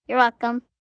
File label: Youre Welcome, Etiquette, 1 girl, 8 yrs; Talking Sound Effects